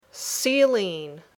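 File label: "ceiling", English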